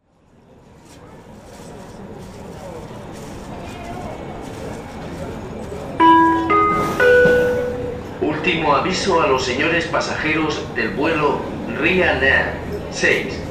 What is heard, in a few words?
Barcelona, Flughafen-Ansagen